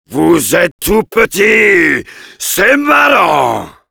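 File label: Heavy taunts, french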